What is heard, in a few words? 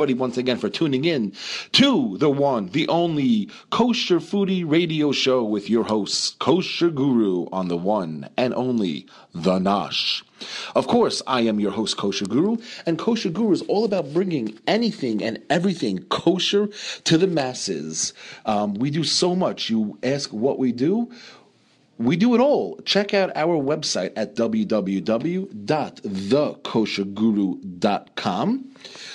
Voice over